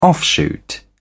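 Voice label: "offshoot", British English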